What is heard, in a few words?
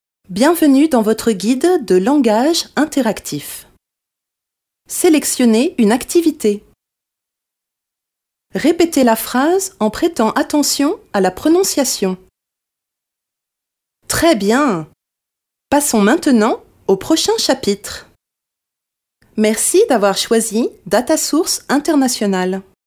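French female corporate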